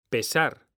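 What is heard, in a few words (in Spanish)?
"PESAR", Spanish; mekanta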